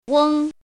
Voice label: "weng1", pinyin